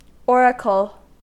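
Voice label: "oracle", American English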